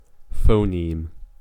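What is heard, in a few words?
"phoneme", American English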